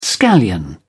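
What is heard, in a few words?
"scallion", British English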